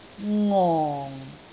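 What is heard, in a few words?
"ngong4", Cantonese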